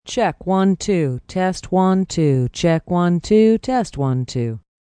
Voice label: Sound Check, Band Sound check, female, typical sound check; Talking; VoiceBox